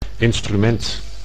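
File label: "instrument", Dutch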